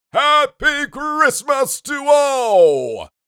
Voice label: Happy christmas santa claus voice